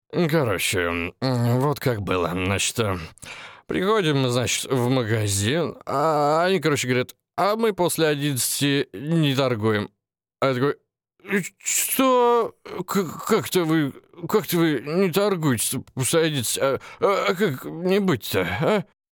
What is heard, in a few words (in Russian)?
pyanyj